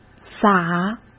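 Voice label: "洒", Mandarin Chinese; hsk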